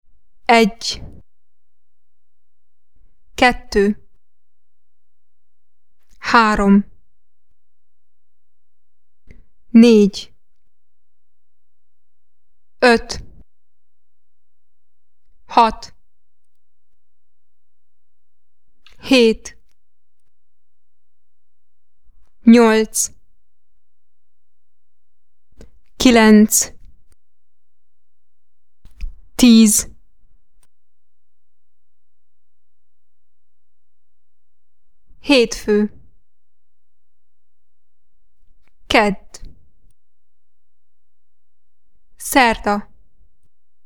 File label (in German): ungarisch